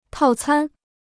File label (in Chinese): "套餐", Chinese